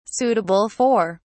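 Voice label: "suitable for", British English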